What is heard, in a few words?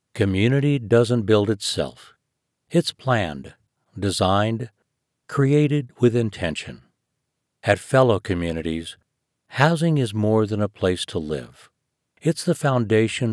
corporate video